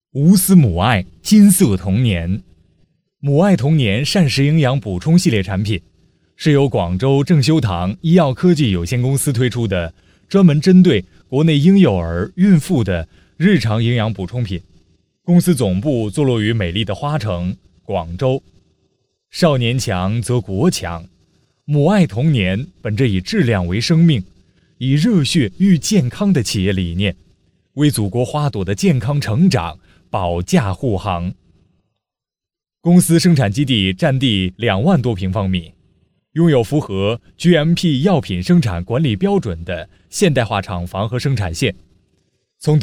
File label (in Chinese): 配音师男国语, 庄重大气 激情活力, 专题; 母爱